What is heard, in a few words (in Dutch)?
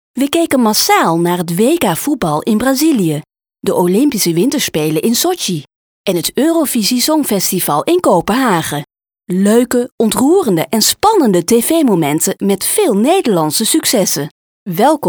Voice reel commentaarstem